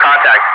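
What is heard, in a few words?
"contact", English speech, Wingman; DCS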